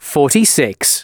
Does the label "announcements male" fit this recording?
Yes